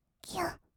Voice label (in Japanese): "ぎゅっ", kawaii voice; ASMR; 色々なワンショット; 1200 kawaii voice!!